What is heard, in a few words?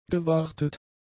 "gewartet", German; adjectives